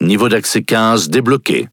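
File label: French Speech Access Level; World Of Warships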